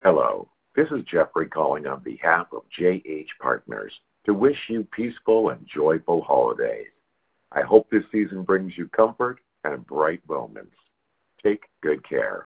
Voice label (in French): Telephone Message pour Noel Anglais; Jeffery Hale